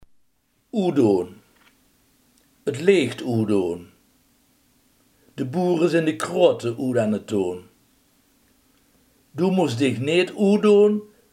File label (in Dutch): "uitdoen", limburgse